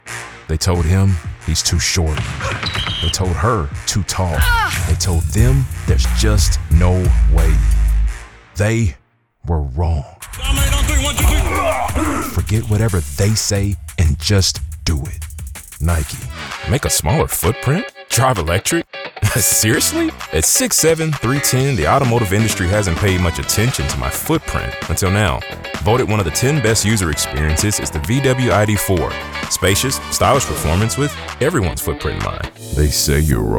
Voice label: COMMERCIAL